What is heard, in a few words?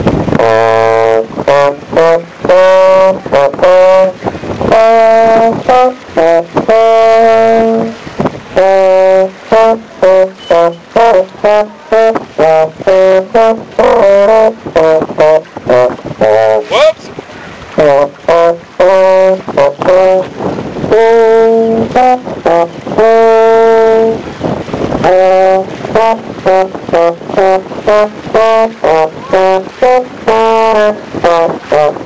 baritone